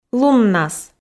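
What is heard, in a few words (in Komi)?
"луннас", female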